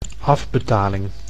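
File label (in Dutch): "afbetaling", Dutch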